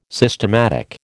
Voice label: "systematic", American